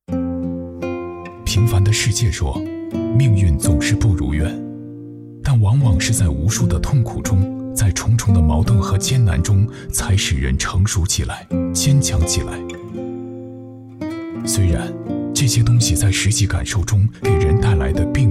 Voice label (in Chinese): 深情讲述; 平凡的世界